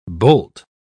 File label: "bolt", pronounce